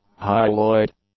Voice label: "high-alloyed", English; adjectives